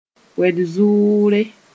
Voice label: "wed-zʋʋre", moore

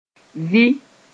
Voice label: "vɩ", moore

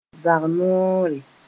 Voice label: "zag-noore", moore

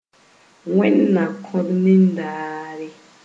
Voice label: "wẽnd na kõ-d nindaare", Moore

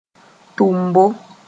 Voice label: "tʋmbo", moore; sending

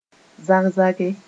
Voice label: "zag-zage", moore

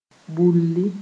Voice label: "bulli", moore

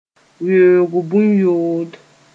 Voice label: "weoog bũn-yood", moore

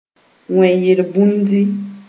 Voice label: "wẽ yel-bũndi", moore

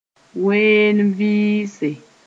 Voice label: "ween-n-vɩɩse", moore

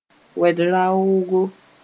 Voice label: "wed-raoogo", moore

